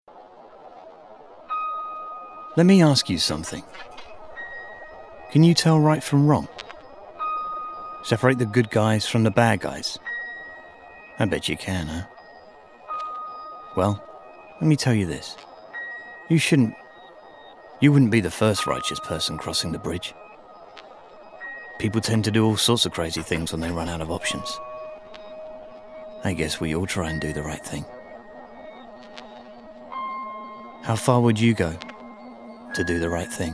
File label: male american english comercial